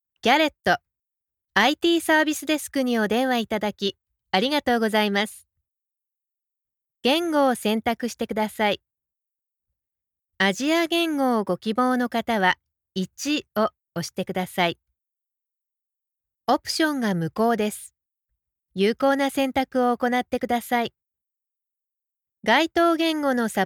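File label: Japanese F IVR, female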